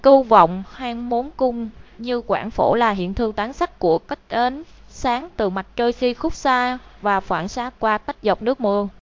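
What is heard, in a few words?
speaker, American English; piper-voices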